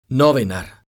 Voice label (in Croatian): "novinar", Croatian